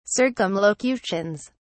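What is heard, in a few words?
"circumlocutions", British English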